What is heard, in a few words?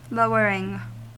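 "lowering", US English; pronunciations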